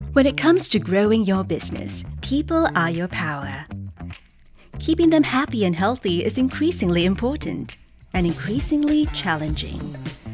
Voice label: B2B Explainer Video VO, Conversational, Eloquent, Inspiring, Cool, Sharp, female; Explainer Videos